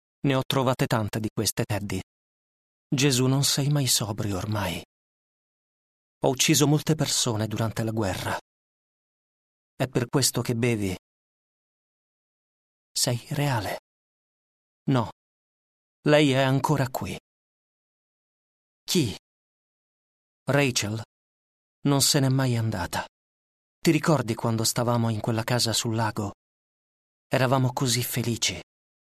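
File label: Italian male Studio; voice over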